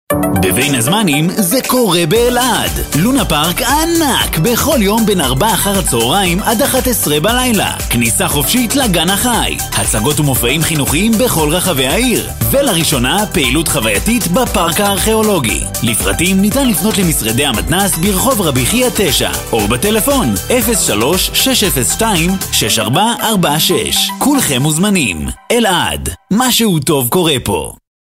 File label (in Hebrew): קריין, תשדיר רדיו